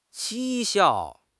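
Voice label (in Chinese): "讥笑", Chinese; HSK6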